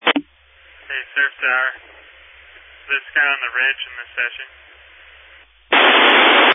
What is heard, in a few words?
Family Radio Service (FRS)